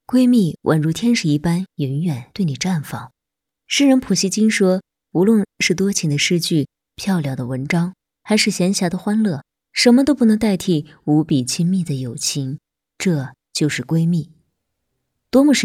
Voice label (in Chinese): 情感电台; 闺蜜宛如天使一般永远对你绽放